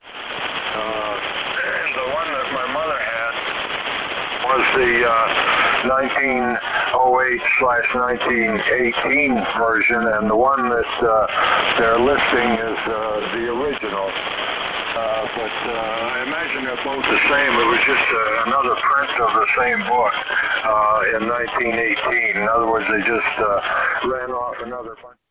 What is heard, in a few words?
ham speech